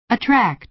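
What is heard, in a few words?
"attract", American English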